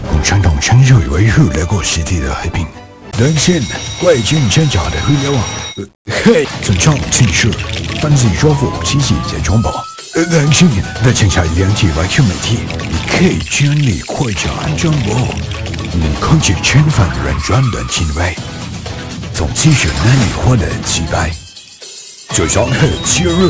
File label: chinese babble